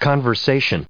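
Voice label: "conversational", US English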